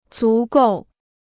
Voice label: "足够", Chinese

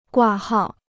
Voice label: "挂号", Chinese

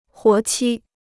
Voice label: "活期", Chinese

